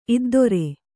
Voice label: "ಇದ್ದೊರೆ", Kannada

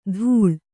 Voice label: "ಧೂಳ್", kannada

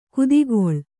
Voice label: "ಕುದಿಗೊಳ್ಳು", Kannada

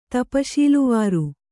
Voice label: "ತಪಶೀಲುವಾರು", kannada

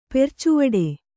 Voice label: "ಪೆರ್ಚುವಡೆ", Kannada

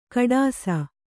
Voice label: "ಖೋಡಾಸು", Kannada

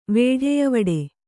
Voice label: "ವೇಢೆಯವಡೆ", Kannada

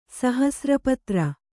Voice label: "ಸಹಸ್ರಪತ್ರ", Kannada; sahasra patra; noun

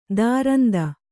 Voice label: "ತುರಂತ", kannada

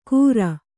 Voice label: "ಕೂರ್", kannada

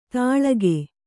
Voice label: "ತಾಳಗೆ", Kannada

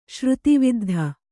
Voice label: "ಶ್ರುತಿವಿದ್ಧ", kannada

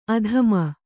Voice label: "ಆದಿಮ", Kannada

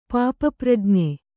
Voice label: "ಪಾಪಪ್ರಜ್ಞೆ", Kannada

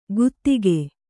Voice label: "ಗುದಿಕೆ", Kannada

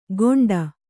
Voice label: "ಗೊಂಡ", Kannada

